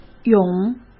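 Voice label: "涌", Mandarin Chinese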